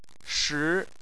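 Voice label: "shi" tone 2, Chinese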